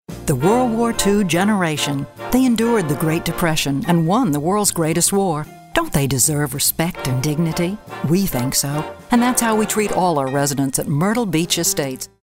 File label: narrative